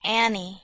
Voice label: "aenig" Anglo Saxon

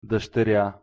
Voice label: "dushterja", Bulgarian

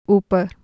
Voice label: "uper", Urdu

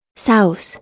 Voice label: "sauz", Proto Germanic

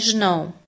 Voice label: "Zinau", Lithuanian

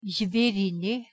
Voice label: "Zverine", Lithuanian

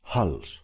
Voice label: "hals", Ancient Greek

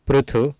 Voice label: "prthu", Sanskrit

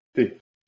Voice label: "thih", Proto Germanic

